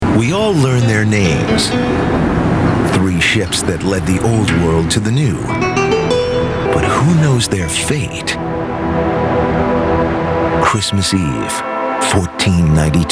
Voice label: narration men documentaries